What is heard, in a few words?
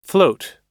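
"float", English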